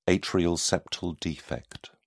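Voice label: "atrial septal defect", English, British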